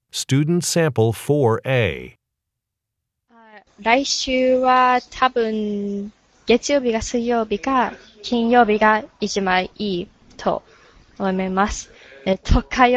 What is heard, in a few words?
japanese conversation